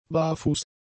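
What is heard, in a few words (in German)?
"barfuß", German; adjectives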